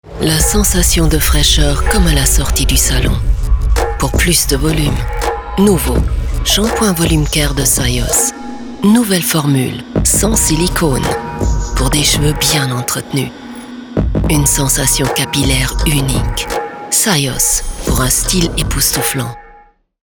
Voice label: female German Swiss French TV promo; Devine Voices

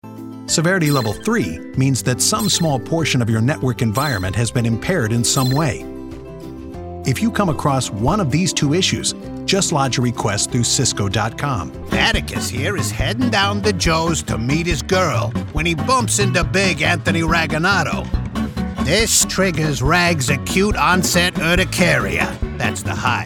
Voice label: male English USA e-learning compilation

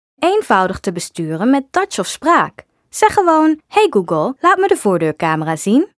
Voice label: female dutch commercial

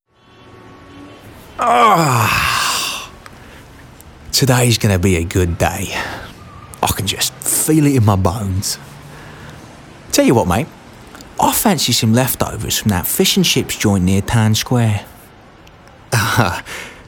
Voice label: male multiple accents character compilation

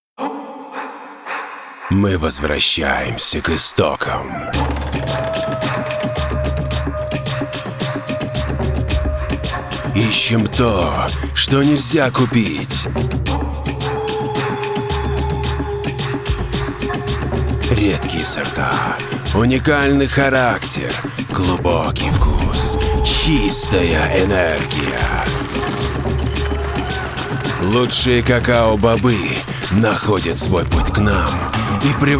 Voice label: male russian chocolate promo; platres

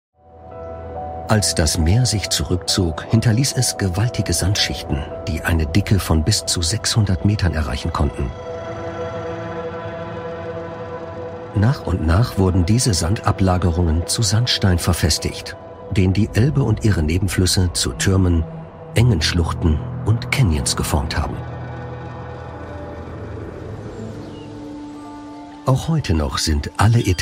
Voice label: male German documentary

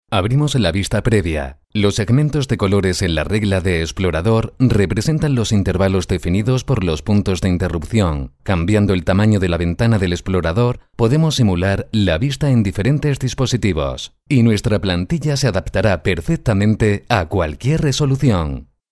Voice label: male, Spanish Castilian, e-learning

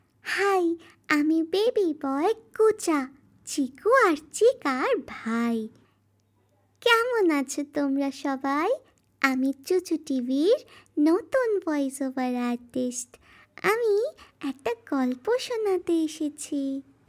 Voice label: female bengali baby voice animation

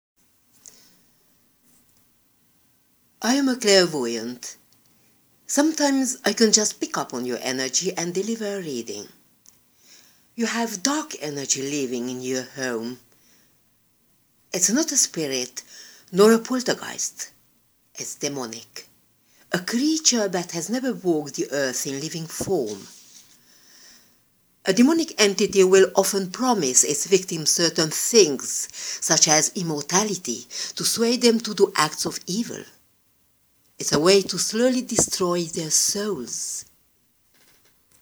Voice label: female hungarian english with hungarian accent

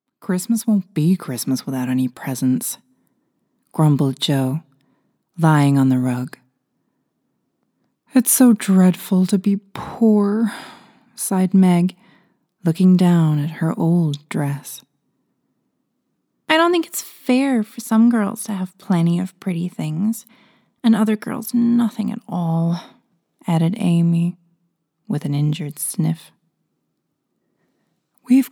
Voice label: female irish and usa usa accent audiobook; irish southern

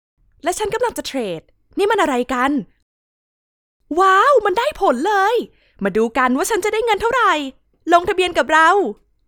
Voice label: female thai trading corporate

